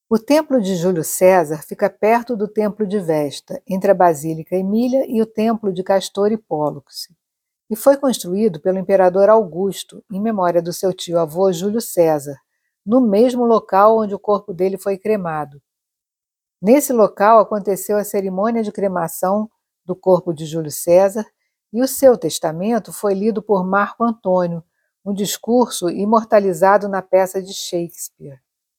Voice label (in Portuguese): Audioguia; Templo de Cesar; Localizacao